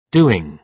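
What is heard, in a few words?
"doing", British English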